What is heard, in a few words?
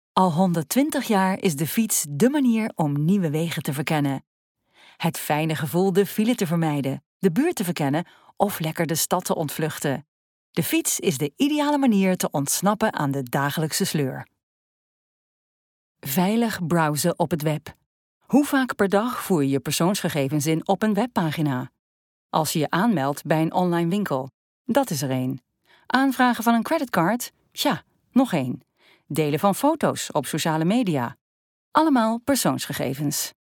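Dutch, female, corporate; voice over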